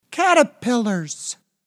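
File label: "caterpillars", English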